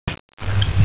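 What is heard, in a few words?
"zi4you2" Mandarin Chinese, female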